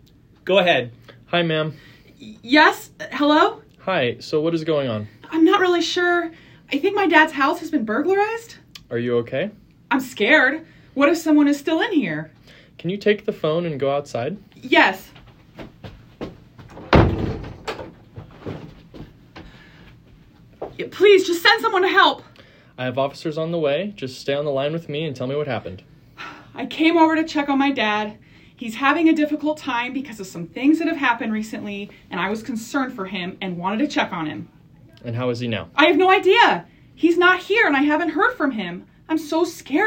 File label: 911 Call; interactive court proceedings